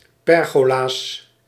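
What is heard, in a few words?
"pergola's", Dutch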